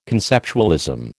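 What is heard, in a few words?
"conceptualism", American English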